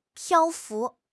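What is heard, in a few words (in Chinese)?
"漂浮", Chinese; HSK; HSK6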